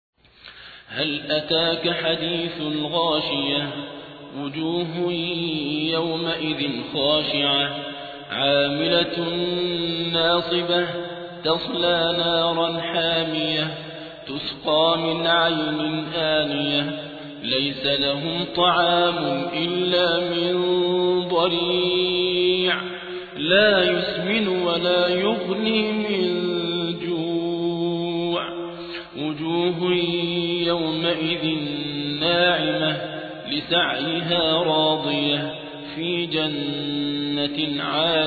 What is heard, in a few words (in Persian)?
ترتیل; صابر عبدالحکم; سوره غاشیه